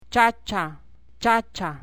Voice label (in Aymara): "chacha", Aymara